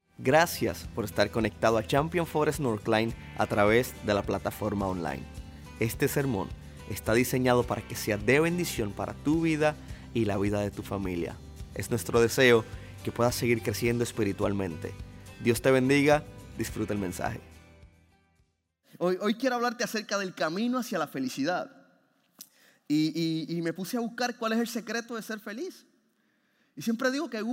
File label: Sermon Spanish